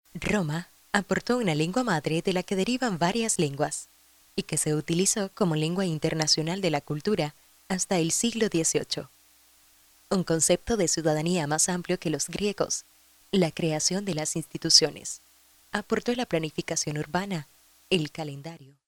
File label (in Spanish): locutora nicaraguense